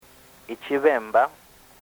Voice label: ichibemba